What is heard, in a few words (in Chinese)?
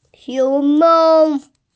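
"xiong2mao1", Mandarin Chinese, female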